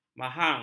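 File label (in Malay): "mahang", Malay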